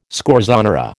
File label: "scorzonera", American